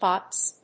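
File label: "pots", English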